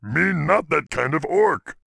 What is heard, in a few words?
Angry; warcraft3; Peon